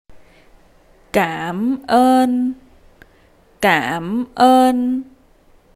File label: Thank you, vietnamese; Appreciation